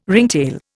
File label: "ringtail", Indian